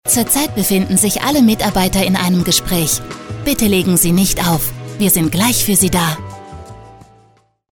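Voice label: German, female, IVR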